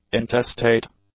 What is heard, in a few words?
"intestate", english; adjectives